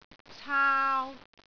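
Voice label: "caau3", Cantonese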